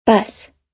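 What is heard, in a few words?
"bus", US English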